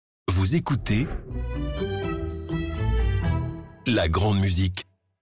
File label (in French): Jingle, Vous écoutez; La Grande Musique